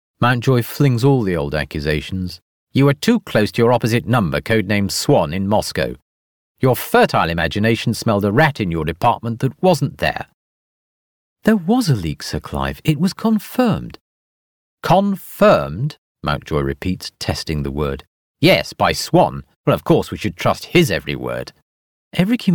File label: english british audiobooks